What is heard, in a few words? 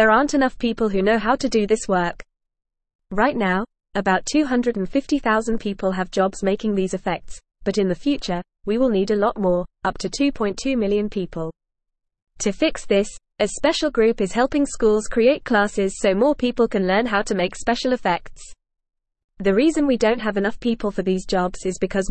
English FAST Reading; India Needs More People to Make Movie Magic